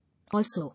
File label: "Also", English